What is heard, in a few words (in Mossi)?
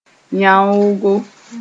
"yãoogo", moore